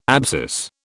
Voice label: "abscess", British